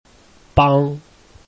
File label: "bang1", Chinese